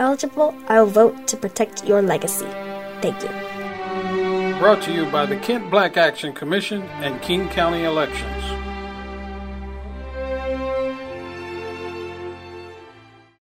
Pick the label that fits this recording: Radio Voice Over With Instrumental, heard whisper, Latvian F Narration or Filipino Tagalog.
Radio Voice Over With Instrumental